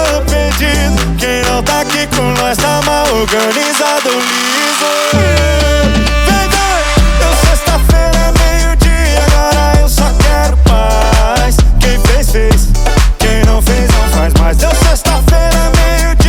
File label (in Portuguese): locutor a voz magnifica